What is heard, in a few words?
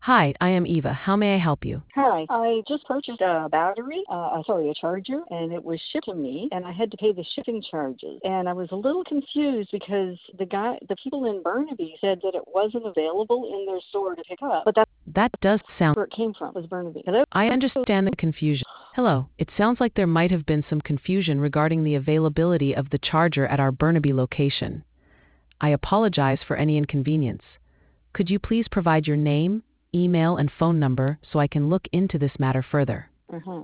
conversation